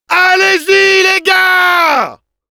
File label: battle cry, fr; "Allez-y les gars !"; Demoman